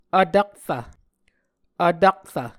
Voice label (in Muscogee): "vtakrv", Muscogee